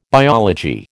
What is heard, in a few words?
"biology", American